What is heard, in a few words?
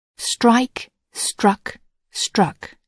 "strike", English